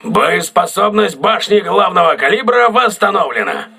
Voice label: voice message main caliber turret repaired, crew ships russian